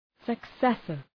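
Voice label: "successor", English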